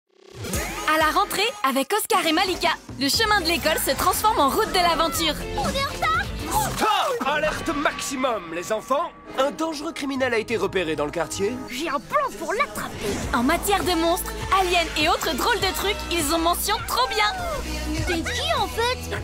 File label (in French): voix off; canal j; oscar et malika